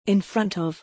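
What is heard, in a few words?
"in front of", English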